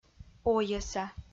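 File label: "ойӧса" Komi-Zyrian, female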